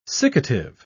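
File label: "siccative", British English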